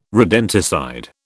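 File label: "rodenticide", British English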